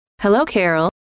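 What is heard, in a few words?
"hello", female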